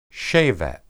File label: "shebet", Hebrew